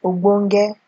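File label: "ogbonge", Nigerian Pidgin, female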